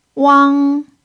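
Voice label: "wang1", Mandarin pinyin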